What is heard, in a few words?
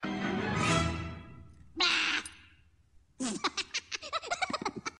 Evil Minions Blaah